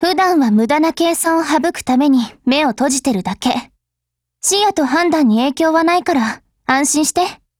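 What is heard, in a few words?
DIALOGUE, Japanese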